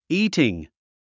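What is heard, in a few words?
"eating", English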